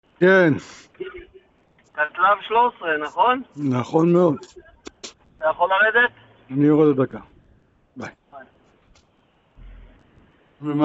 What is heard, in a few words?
Call recording